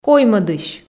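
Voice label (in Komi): "коймӧдысь", Komi, female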